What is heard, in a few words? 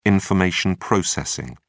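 "information processing", British English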